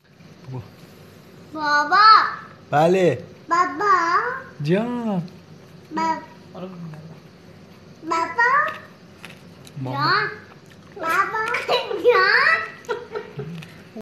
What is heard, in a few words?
baby saying daddy